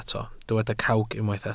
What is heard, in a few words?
"cawg", Welsh